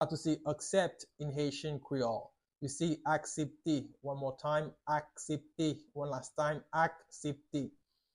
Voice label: How to say Accept in Haitian Creole Aksepte Pronunciation